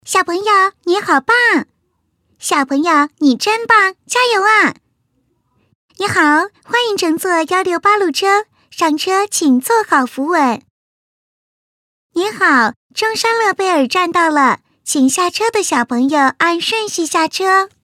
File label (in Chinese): 女-模仿-女童声音